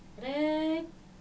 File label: "Rɛ̈ɛ̈c", Dinka